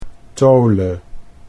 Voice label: "tole", Dutch